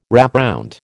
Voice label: "wrapround", American

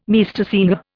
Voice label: "Meistersinger", Indian

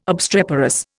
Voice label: "obstreperous", Indian

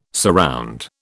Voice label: "surround", British

